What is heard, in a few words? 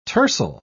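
"tercel", British English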